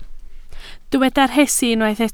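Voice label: "rhesi" Welsh, spoken word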